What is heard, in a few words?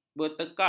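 "bertekak", Malay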